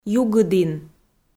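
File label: "югыдін", Komi, female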